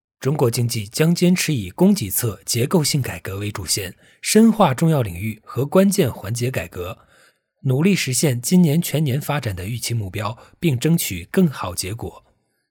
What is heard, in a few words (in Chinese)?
新闻类